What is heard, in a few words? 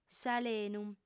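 "s'alenu", female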